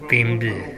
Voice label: "denbi", minamiyamagata